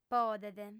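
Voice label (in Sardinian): "podet", female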